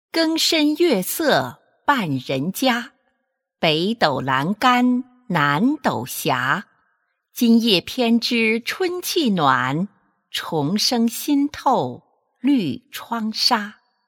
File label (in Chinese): 诗朗诵